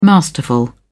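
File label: "masterful", British English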